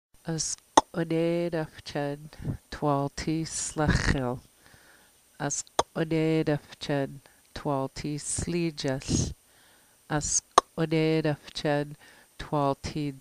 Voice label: lushootseed